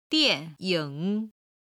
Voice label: "dian4ying3", Chinese